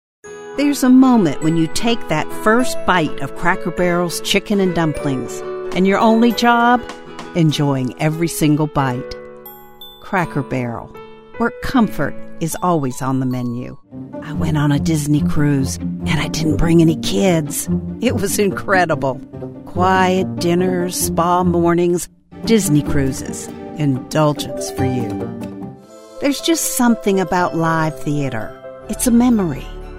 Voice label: Commercial